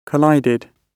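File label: "collided", British English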